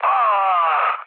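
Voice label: Serbian damaged pilot mood low, speech; War Thunder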